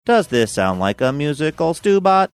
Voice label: saying does this sound like musical sing songy robotic; stubot